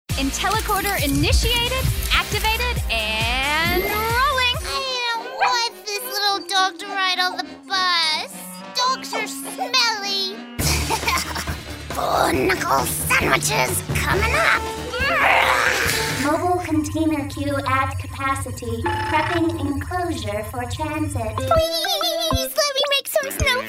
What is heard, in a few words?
Animation Anime